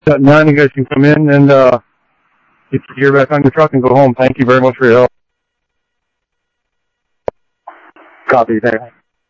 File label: Interop MutualAid, scanner audio; SimulcastF-Tempe; G10Interop